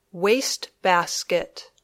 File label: "waste basket", English